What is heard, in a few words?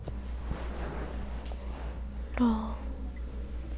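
"low", spoken word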